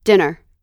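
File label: "dinner", American English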